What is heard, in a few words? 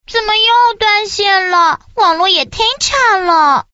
quick chat voice, girl